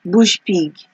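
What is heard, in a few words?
"bush pig", Nigerian Pidgin, female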